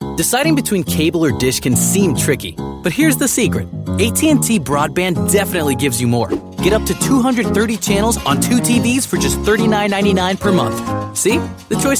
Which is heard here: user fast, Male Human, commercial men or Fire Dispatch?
commercial men